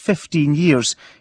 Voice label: fifteen yearS, Scots